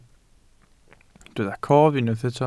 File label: "cof", Welsh